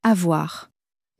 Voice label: "avoir", french; flashcards